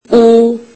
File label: turkish alphabet u